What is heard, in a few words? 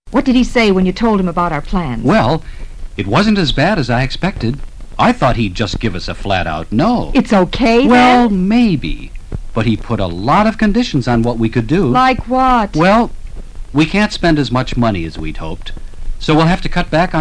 conversation